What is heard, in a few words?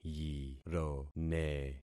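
"yirone", concatenated syllables; Affixing experiment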